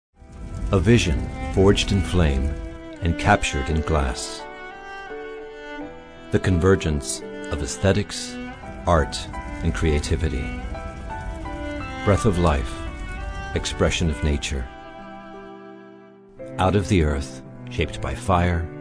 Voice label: ENGLISH MAN